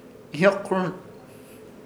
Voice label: "Ihyokkũhɨrt", Ishir Chamacoco; sonamulo